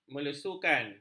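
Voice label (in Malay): "melesukan", Malay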